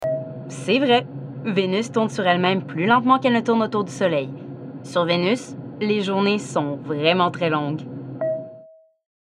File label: narration